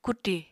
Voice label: "kuti", Apatani

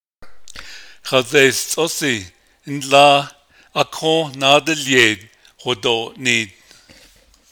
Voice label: navajo imperative; Chipmunk was told to go over there It was said

